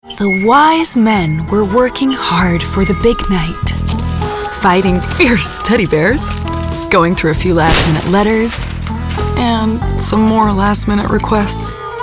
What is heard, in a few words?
Entusiasta EN